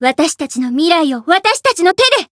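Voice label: Voice variable chant, Japanese; radica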